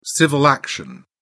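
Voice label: "civil action", British English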